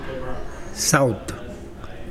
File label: "south", Mokilese